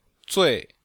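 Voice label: "zui4", chinese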